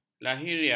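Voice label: "lahiriah", Malay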